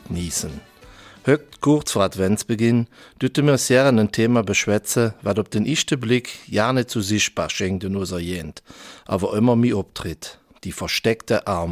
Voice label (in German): Eifeler Mundart; Versteckte Armut in Ostbelgien